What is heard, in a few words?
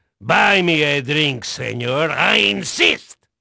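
Spanish male, voice; Pirates Of The Caribbean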